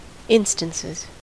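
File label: "instances", US English; pronunciations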